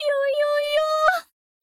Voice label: yoyoyo C#5 D5 D#5; 赛马娘; 麦昆